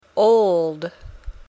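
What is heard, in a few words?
"old", English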